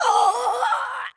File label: Female; baldurs1